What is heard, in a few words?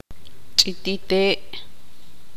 tzutujil